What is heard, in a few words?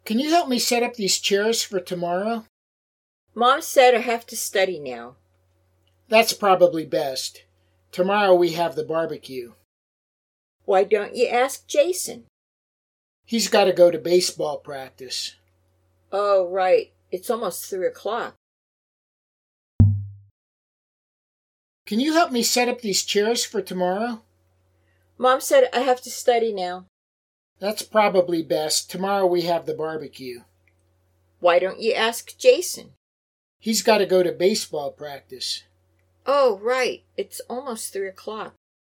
Natural Speech